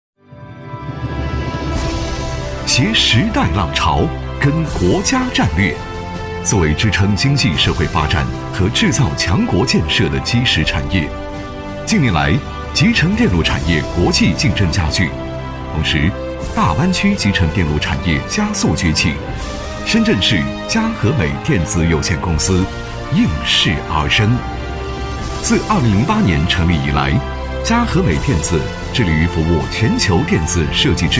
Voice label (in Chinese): 男 企业宣传片 大气年轻; 男181-时尚科技 高端大气; 佳合美电子